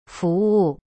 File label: "服务" fu wu, chinese